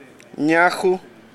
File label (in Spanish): "mujer", saliba